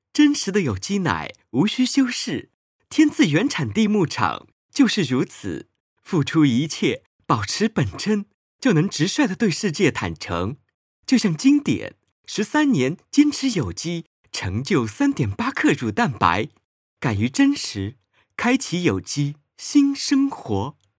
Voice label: 牛奶广告

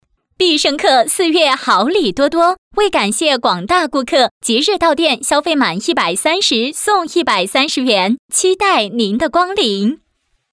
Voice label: 广告年轻; 必胜客

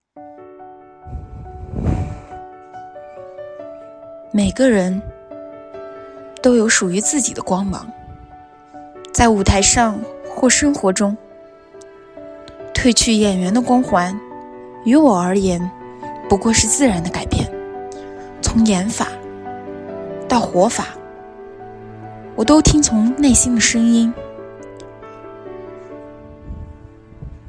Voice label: 广告

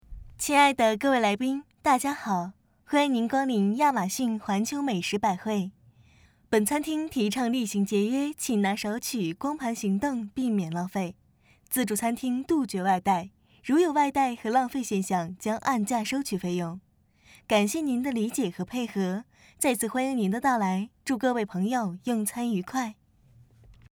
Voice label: 自助餐广播; 亚马逊

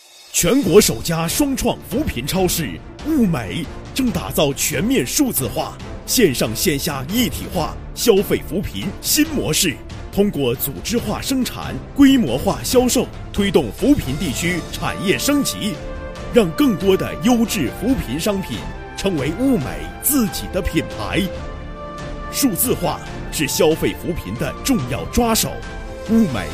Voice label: 超市促销; 物美超市

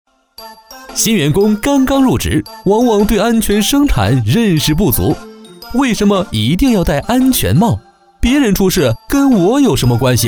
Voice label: 动画新员工安全培训动画

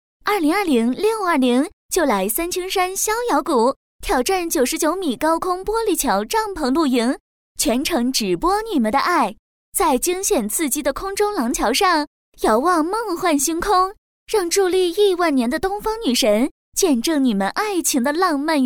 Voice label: 温柔宣传类

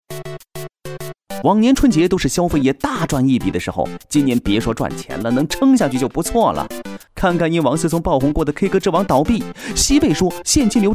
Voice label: 轻松活泼跳跃解说

